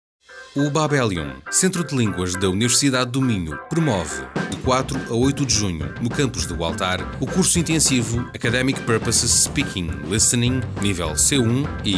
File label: PORTUGUESE